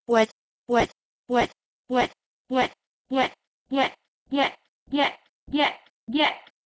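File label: Proto-Indo-European wed to Armenian gjet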